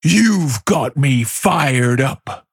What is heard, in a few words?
Female Dragon Wyrmkin